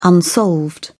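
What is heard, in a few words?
"unsolved", British English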